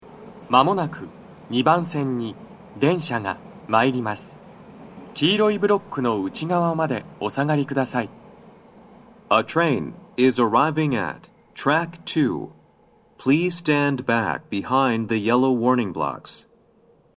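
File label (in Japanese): sekkin, 接近放送 【男声】 簡易放送; 放送は「簡易放送」です。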